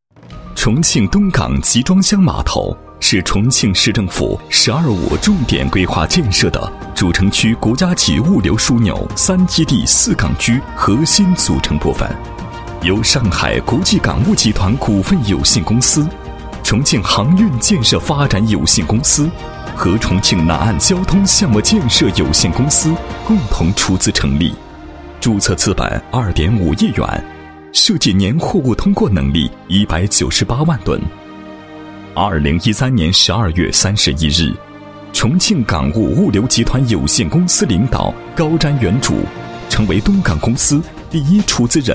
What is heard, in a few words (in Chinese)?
男 介绍 微纪录片 物流录音, 配音案例; 蝶变十二五东港微纪录片